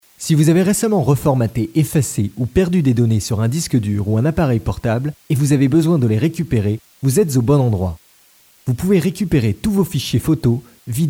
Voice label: 外籍法语男 商业广告 commercial

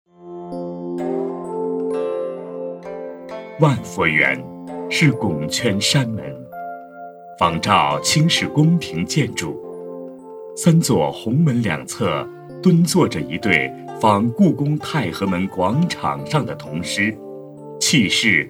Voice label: 男 纪录片; 万佛园

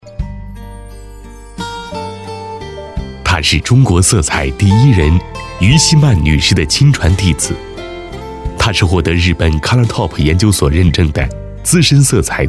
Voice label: 男, 人物介绍, 磁性温厚, 高端

